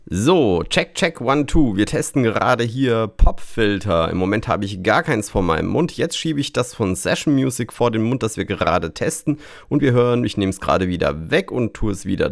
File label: pop-filter; session music